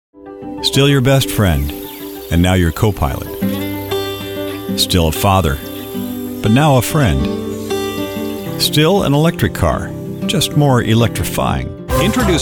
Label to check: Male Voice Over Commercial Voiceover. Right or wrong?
Right